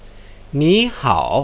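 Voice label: "nihao", Mandarin Chinese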